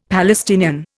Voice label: "Palestinian", Indian; Dictionary